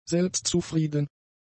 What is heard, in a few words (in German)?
"selbstzufrieden", German; adjectives